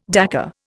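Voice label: "décor", Indian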